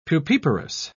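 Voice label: "pupiparous", British English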